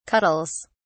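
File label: "cuddles", British English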